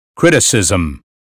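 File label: "criticism", American phonetics; 英语音标发音（剑桥版）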